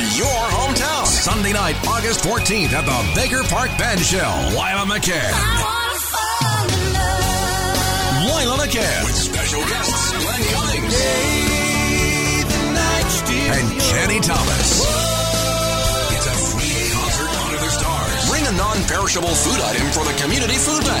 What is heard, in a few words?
vo country